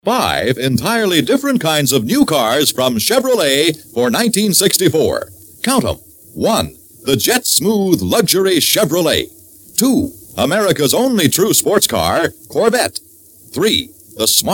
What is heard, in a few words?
Cars Radio Commercial; Chevrolet; Cars for 64; 1963